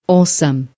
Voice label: "osam", Croatian